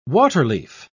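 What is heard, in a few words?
"waterleaf", British English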